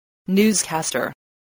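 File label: "newscaster", English word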